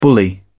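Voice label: "bully", British English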